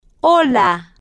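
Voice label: "hola", Spanish, slow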